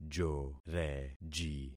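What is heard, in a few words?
"joreji", concatenated syllables; Affixing experiment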